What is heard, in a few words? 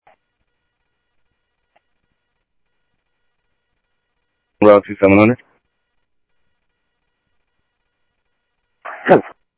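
Sheriff East County, scanner audio trunk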